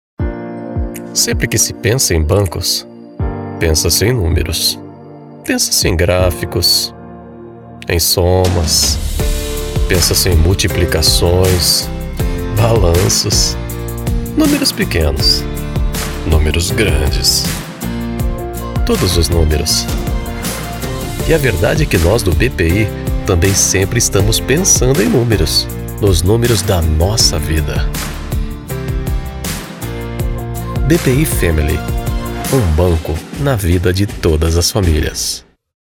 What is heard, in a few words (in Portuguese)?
Corporativo; BPI